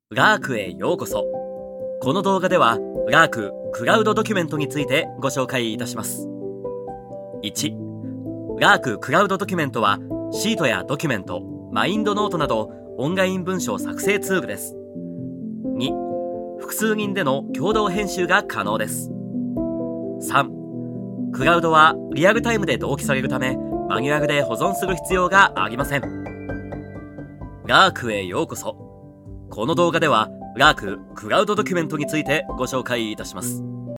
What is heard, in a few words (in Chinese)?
日语 男声 老师; 日语男声号老师